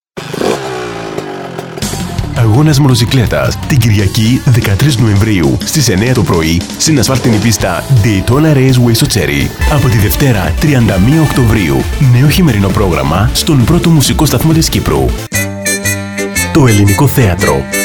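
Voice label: Greek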